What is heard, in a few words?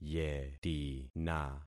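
"yetina", concatenated syllables; Affixing experiment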